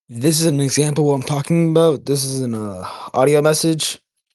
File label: voice message